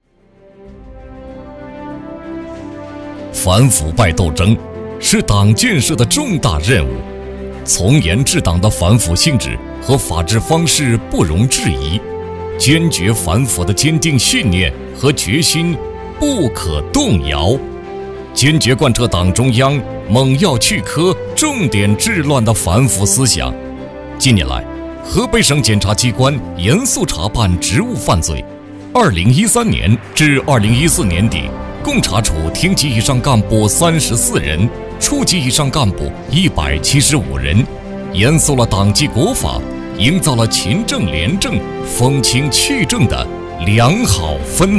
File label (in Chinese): 国语男声配音 坚定 大气; 专题-反腐